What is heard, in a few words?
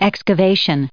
"excavation", us